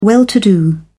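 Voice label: "well to do", British English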